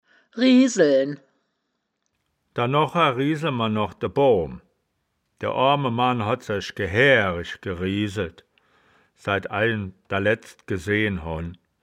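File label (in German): "rieseln", wittlicher woerterbuch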